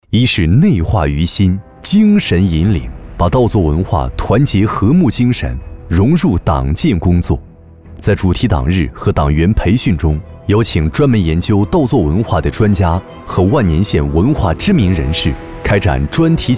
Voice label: 男, 稳重专题; 稻韵·法魂

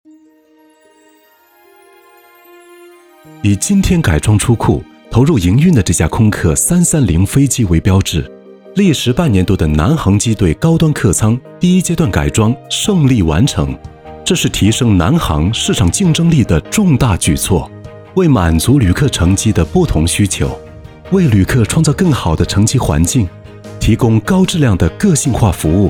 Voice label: 男 专题片 企业 科技; 南航高端经济舱

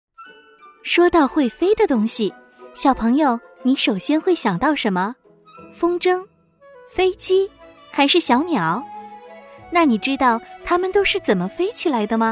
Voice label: 女 中文 读物 儿童 亲切 活泼

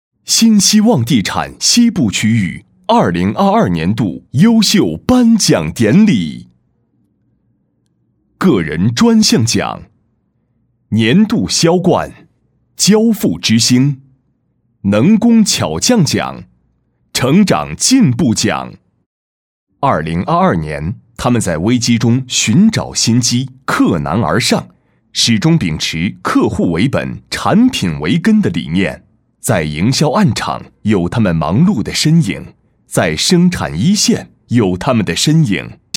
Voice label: 男, 颁奖

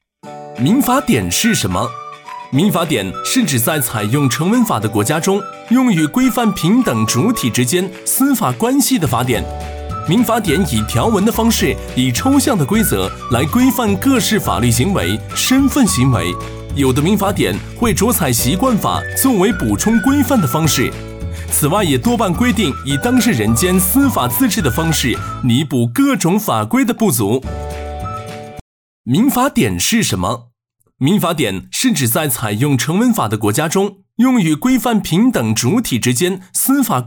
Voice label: 男 MG 普法; 乌海银行

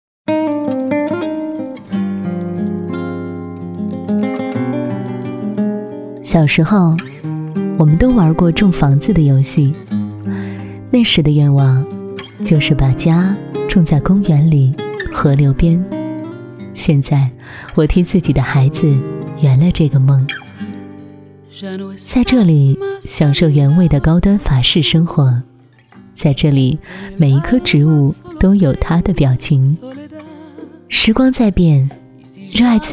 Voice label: 女 地产 高贵自述; 铂金生活地产

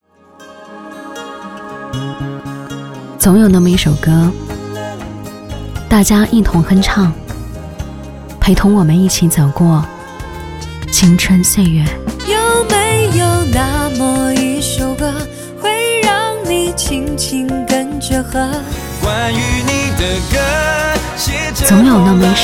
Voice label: 女 电台包装; 音乐随你同行

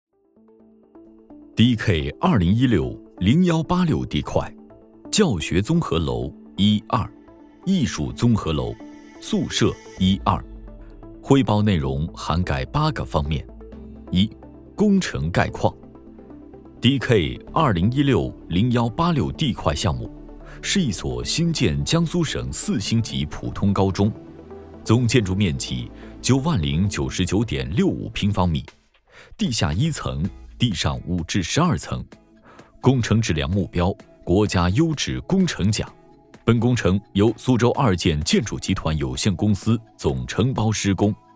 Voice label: 男 专题 项目展示工程汇报

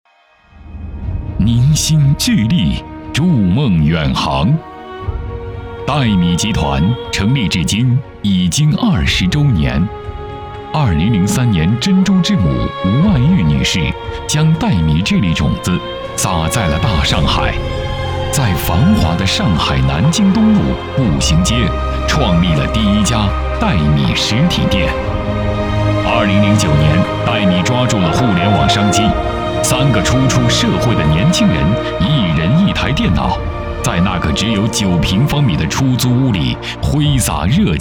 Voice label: 男 专题 开场介绍

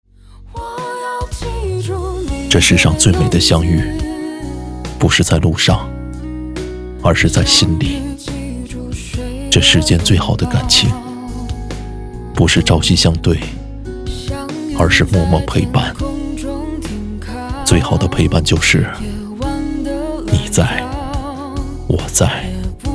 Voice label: 男 抖音旁白; 最好的感情就是默默陪伴

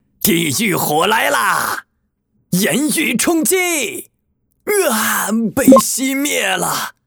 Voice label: 男 游戏角色; 炎域卒

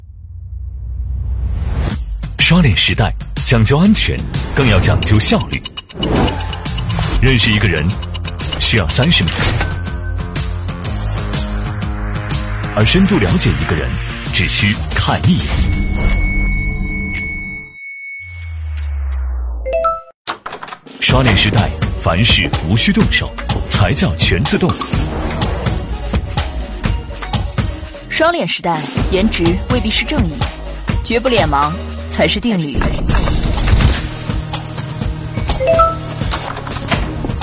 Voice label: 女 广告; 小米智能门锁

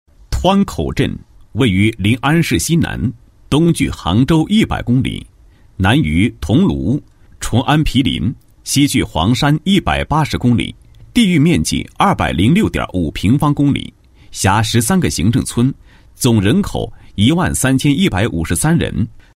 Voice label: 男27-工作总结; 临安市某镇创建浙江省文化强镇

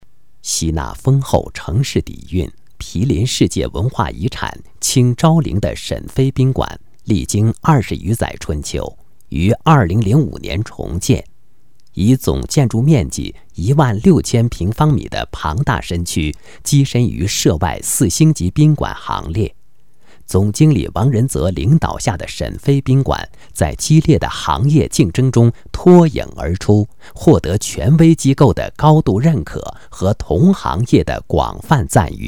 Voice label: 男, 国语男声配音; 沈飞宾馆

